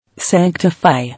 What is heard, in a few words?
"Sanctify", English